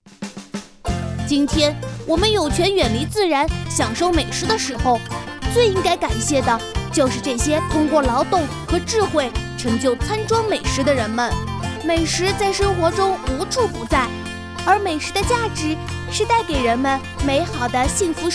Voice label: 童声

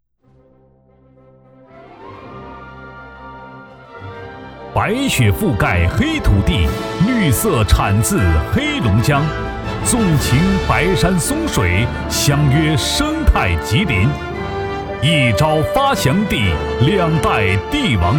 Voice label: 男, 颁奖

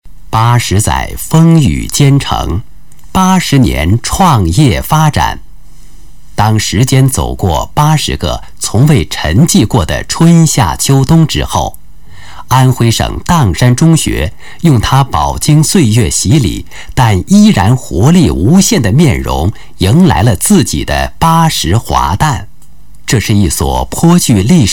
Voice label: 男 专题干音; 国语男声配音; 砀山中学专题干音

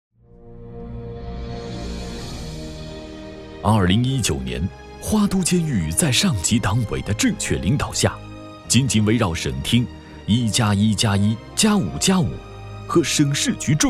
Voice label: 男 监狱专题

